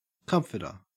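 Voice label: "comforter", Australian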